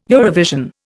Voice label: "Eurovision", Indian